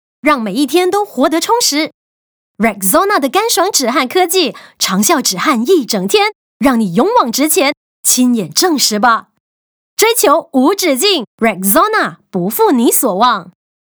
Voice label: mandarin VO; Rexona